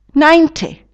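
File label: "ninety", English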